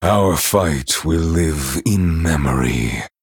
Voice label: Male Night Elf Well Played

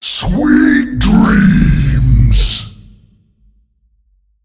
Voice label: Male Demon Sleep

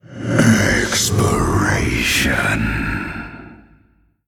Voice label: Male Elemental Attack

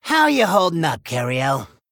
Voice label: Male Gnome; Scabbs; Cariel

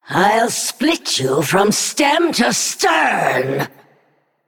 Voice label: Female Naga Threaten

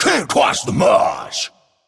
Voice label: Male Manari Attack

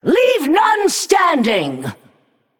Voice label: Female Naga trigger lightning storm

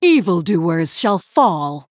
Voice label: Female Human, MIRROR START

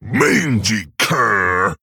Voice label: Male Orc Ogre Attack

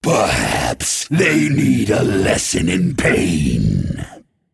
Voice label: Male Flamewaker Play

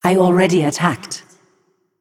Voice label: Female Naga ERROR I ATTACKED

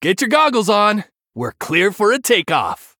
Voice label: Male Human New Game

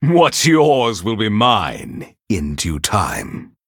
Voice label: Male Venthyr Play

